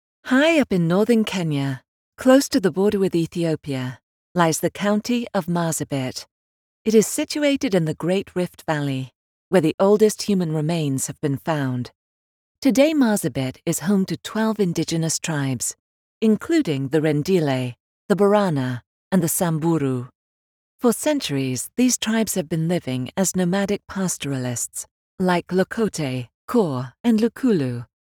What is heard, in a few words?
climate change documentary